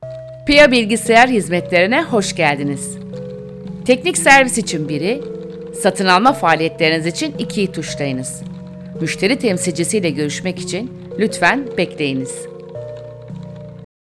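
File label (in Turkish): santral, Turkish, Female Middle Age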